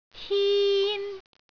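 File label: "hin1", Cantonese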